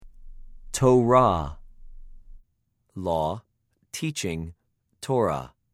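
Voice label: "תּוֹרָה", Hebrew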